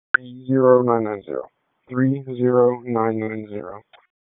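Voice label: Raleigh Police Northeast District Dispatch; wake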